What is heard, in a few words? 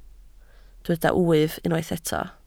"wyf", Welsh